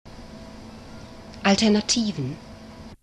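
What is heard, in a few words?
"alternativen", German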